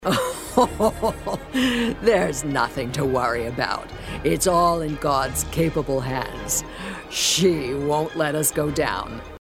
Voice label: American nun - senior unconventional